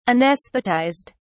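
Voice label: "anaesthetized", British English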